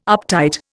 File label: "uptight", Indian